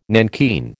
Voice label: "nankeen", American